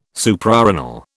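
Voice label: "Suprarenal", British English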